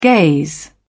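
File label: "gaze", British English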